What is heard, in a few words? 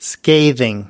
"scathing", US